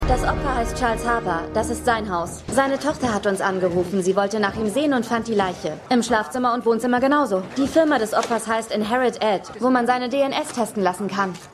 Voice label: Polizistin; Law & Order

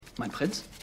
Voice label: Junger Diener, Stimmproben; House of the Dragon; Hohenturm

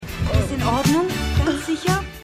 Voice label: Helfende Frau; Bridget Jones 3

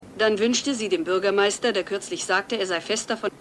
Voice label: TV Sprecherin; Ein Hauch von Himmel

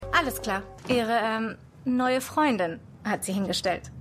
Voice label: Assistentin; Law & Order; Baxters Assistentin

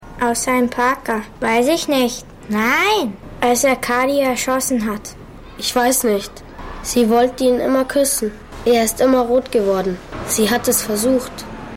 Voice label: Junge, Stimmproben; Law & Order Special Victims Unit; Sammy

